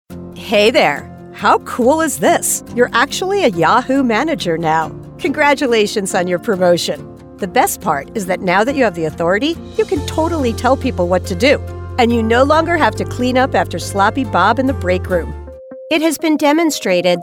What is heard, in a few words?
eLearning